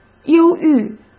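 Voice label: "忧郁", Mandarin Chinese; chinese-flashcards; hsk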